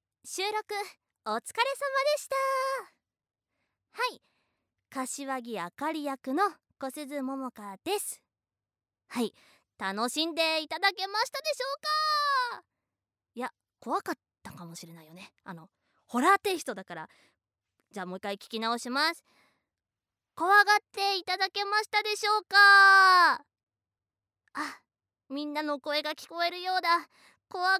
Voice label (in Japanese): フリートーク, ASMR; 怪談催眠 取り憑かれ; 異説 姦姦蛇螺